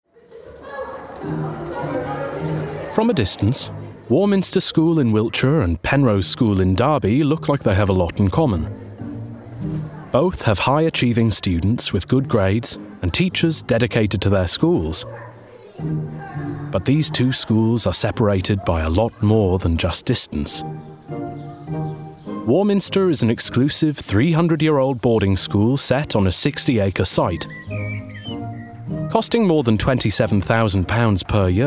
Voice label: DOCUMENTARY; School Swap